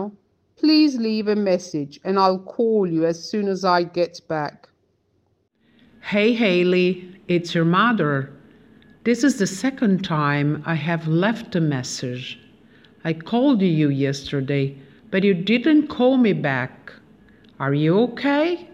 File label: VOICE MAIL; prova INVALSI d'Inglese